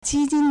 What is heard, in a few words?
"ji1 jin1", Chinese